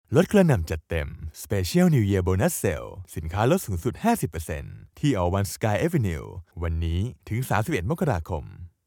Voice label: Thai